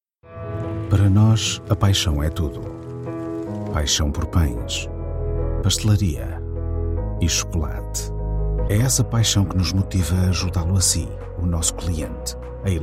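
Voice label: Portuguese, male, corporate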